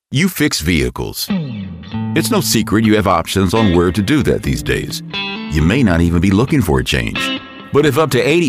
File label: Recruitment, Adult American male VO with a conversational style